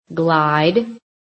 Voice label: "glide", american; PHONETIC